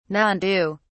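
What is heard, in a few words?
"nandu", British English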